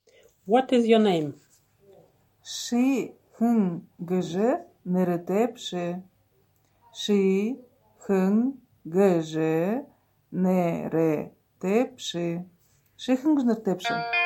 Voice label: What is your name, Buryat